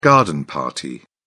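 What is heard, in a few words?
"garden party", British English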